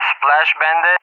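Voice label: "splash bandit", English speech; Messages; DCS; Allied Flight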